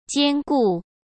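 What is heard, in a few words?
"兼顾", Mandarin Chinese; hsk; chinese-flashcards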